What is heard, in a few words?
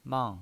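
"mang", pinyin voice, Mandarin Chinese, fourth tone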